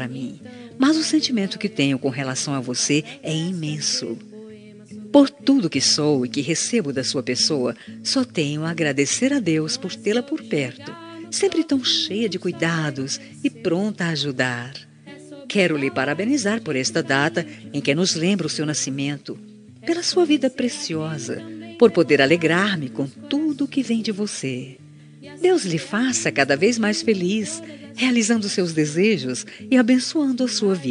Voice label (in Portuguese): madrinha fem, Aniversário Madrinha – Voz Feminina